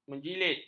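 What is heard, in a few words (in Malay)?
"menjilid", Malay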